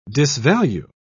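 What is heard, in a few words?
"disvalue", British English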